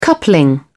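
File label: "coupling", British English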